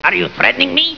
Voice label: threat